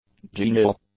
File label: "genial", English; adjectives